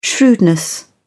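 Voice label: "shrewdness", British English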